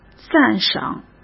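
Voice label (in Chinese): "赞赏", Mandarin Chinese; hsk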